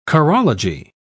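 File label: "chorology", British English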